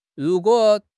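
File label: "ru2 guo3", Chinese; HSK3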